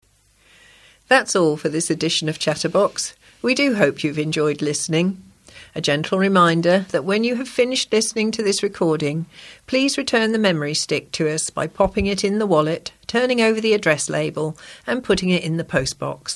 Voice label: Closing Announcement